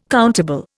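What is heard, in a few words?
"countable", Indian English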